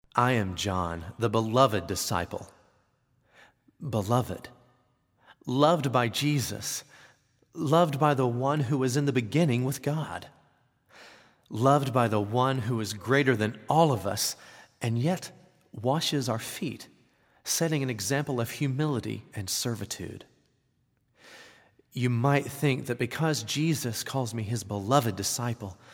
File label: Monologue; John; living last supper